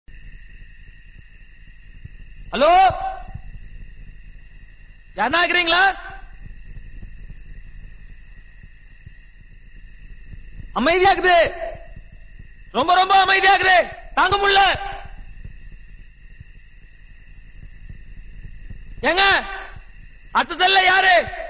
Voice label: emotional hindi dialogue; andolan